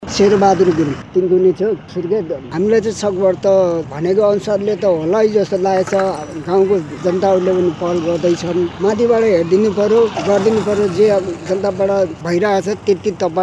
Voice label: voxpop